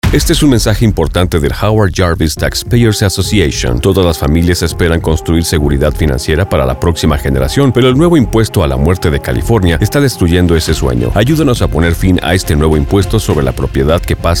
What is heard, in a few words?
Spanish Repeal the Death Tax radio